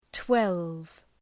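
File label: "twelve", English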